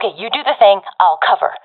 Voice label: friend plant bomb, professional fem; vo; Counter Strike Model Pack; CS:GO